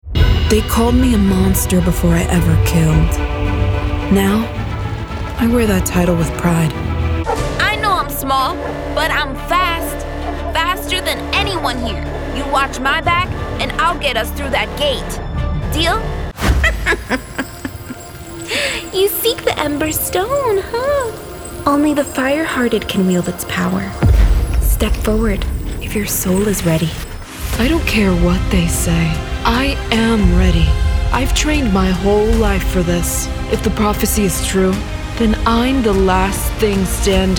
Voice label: english american video games; professional bilingual (English and Spanish) voice actor